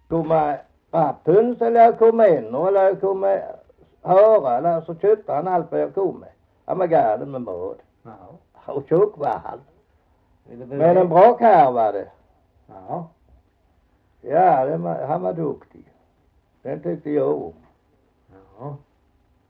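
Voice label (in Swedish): Hasslöv Halland, Svenska sagor, dialekter; Folkminnen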